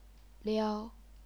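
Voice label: "liao", Chinese, first tone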